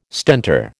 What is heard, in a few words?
"Stentor", American